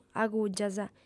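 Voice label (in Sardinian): "agùgias", lingua sarda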